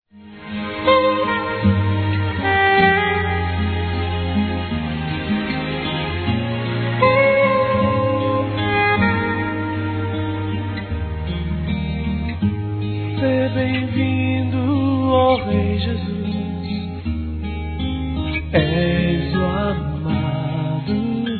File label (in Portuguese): aniv. Esposo f, Telemensagens, Gospel, fem; Telemensagens 2017